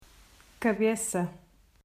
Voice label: "Cabeça", Portuguese